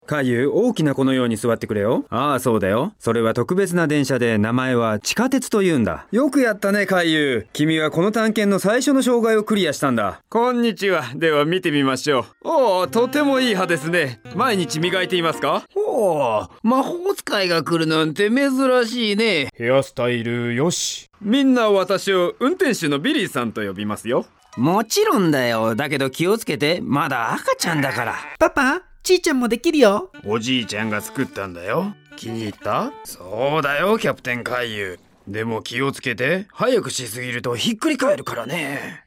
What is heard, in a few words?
animation